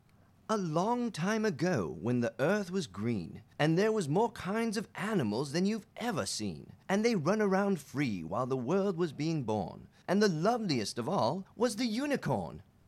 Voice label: story